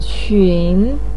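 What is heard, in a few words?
"qun", Mandarin Chinese, third tone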